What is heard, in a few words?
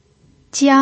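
"jiang" first tone, pinyin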